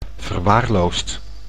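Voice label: "verwaarloosd", Dutch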